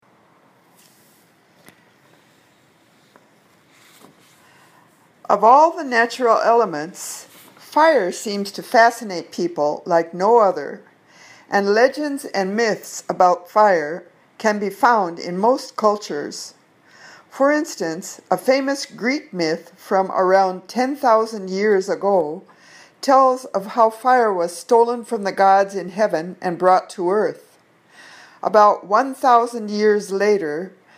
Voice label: Reading Drill